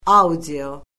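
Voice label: "audio", Azerbaijani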